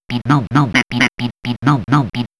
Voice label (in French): Voix epicier